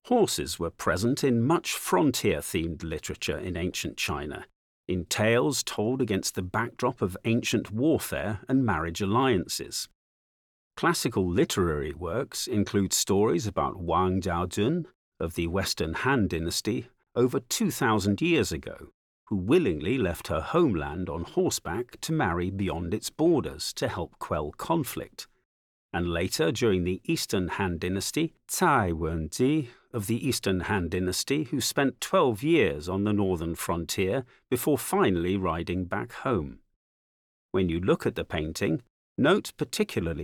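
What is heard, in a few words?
English, audio guide; Departure of Wang Zhaojun to the frontier; Fei Danxu (1802–1850); Heavenly Horse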